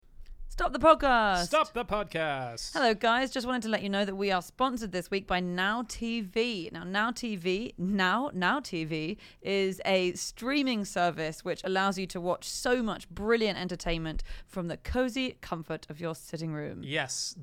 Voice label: uk now tv read

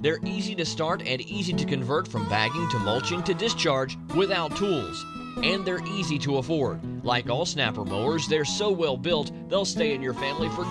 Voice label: radio commercial machinery; granite

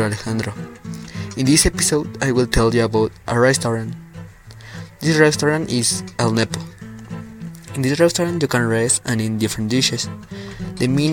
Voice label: eat drinking teen voices

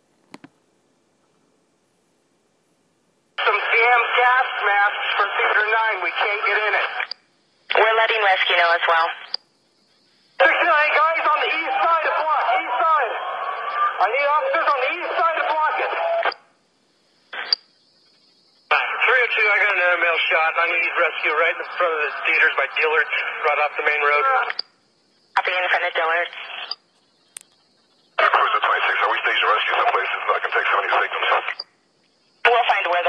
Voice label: police scanner

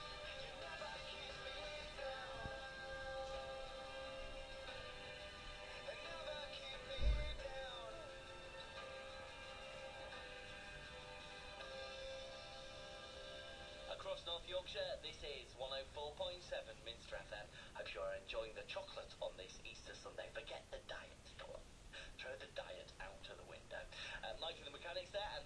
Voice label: cheesy northern local radio

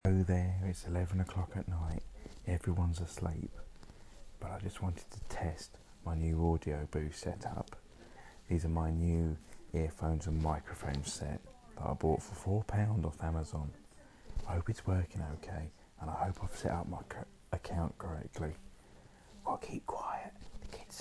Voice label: sshhhh talk quietly

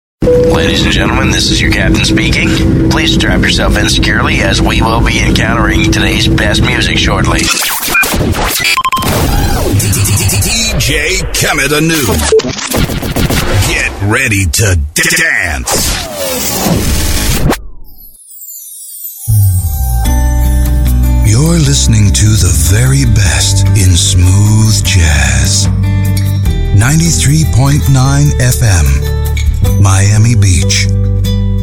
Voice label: radio imaging

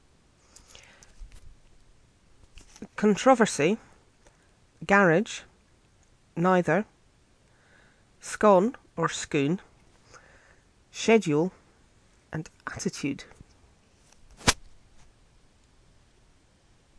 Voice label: Hawick St Andrews female

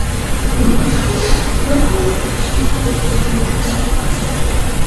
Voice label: child and male

